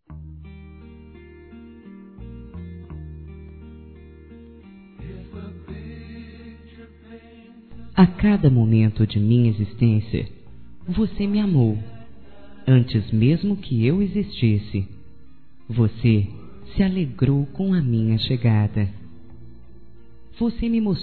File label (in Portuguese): MÃE F, Telemensagens Dia das Mães Fem; Telemensagens 2017